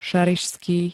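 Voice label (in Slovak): "šarišský", Slovak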